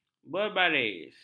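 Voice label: "berbaris", Malay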